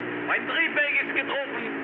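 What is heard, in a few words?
German damaged engine mood high, speech; War Thunder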